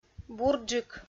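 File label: "бурджык", Komi, female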